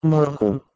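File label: "mrghu", Proto-Indo-European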